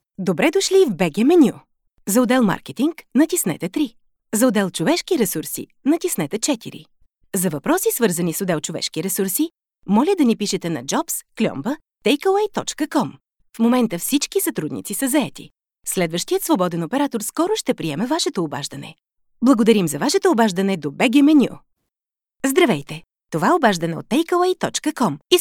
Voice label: Telephone Prompts IVR Bulgarian

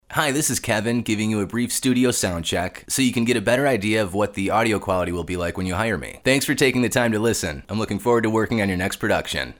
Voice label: Studio Sound Check

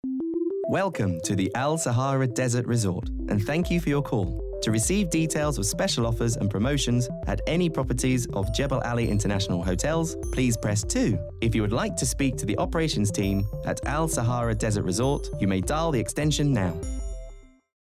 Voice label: IVR

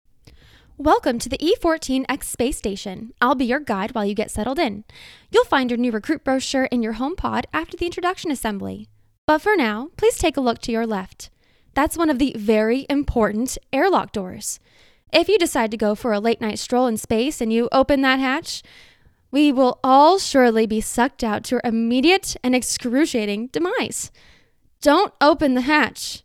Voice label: Audiobook, Female; American

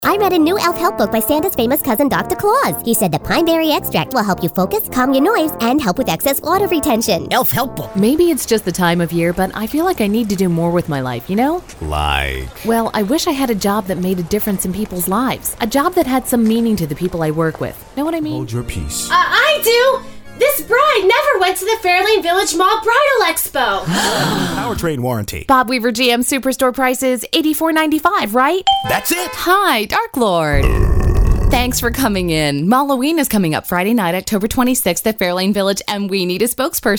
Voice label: Character Sample

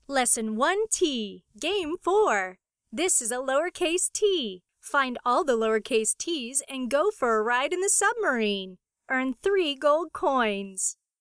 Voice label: reading; planet

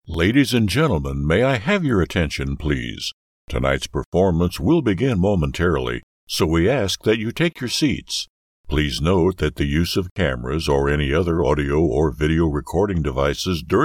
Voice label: Voice of God

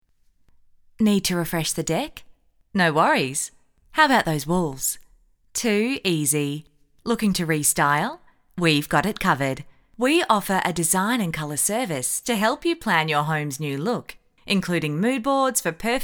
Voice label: Natural VO

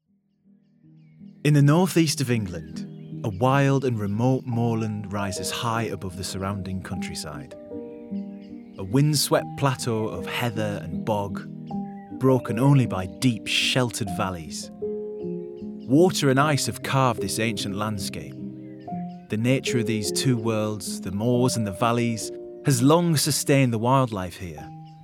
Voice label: Narration Northern English

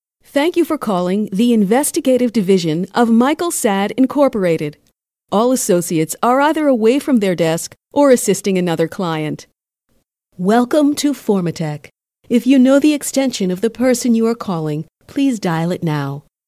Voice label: Voice Mail

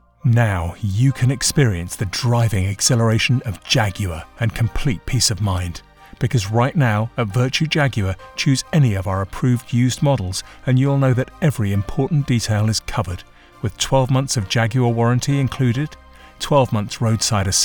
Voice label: ad

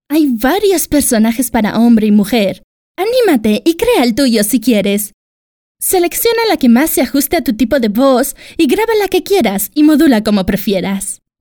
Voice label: LATIN SPANISH FEMALE ANUNCIO

